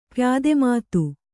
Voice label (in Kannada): "ಪ್ಯಾದೆಮಾತು", Kannada